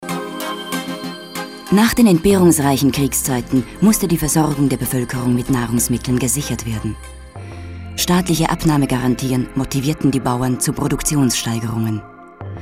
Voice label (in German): sprachdemo landwirtschaft und ernährung